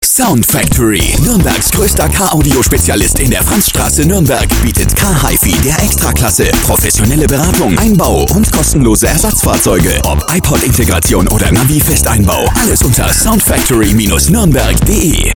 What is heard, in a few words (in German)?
Spot